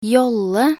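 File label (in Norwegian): "jolle", Norwegian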